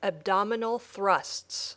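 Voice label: "abdominal thrusts", English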